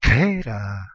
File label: "khera", Ancient Greek